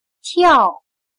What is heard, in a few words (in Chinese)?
"跳", chino